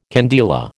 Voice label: "candela", American